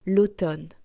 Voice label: "l'automne", French; seasons; days months seasons

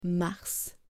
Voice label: "mars" French

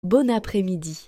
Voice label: "bon après-midi", French

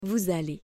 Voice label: "Vous allez", French

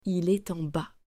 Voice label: "Il est en bas", French

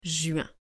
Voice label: "juin" French

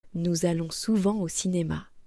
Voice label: "Nous allons souvent au cinéma", French

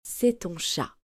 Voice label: "Cest ton chat", French

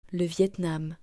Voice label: "Le Vietnam", French

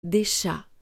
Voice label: "chats, des", French

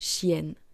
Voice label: "chienne" French

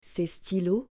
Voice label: "Ces stylos", French

